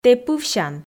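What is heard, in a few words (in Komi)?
Komi, тэ пывсян, female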